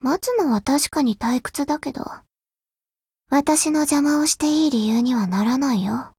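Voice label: loading, Japanese; 少女前线:P50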